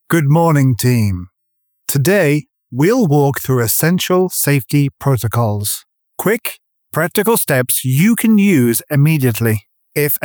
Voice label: e-learning narration corporate DRY NO EFFECTS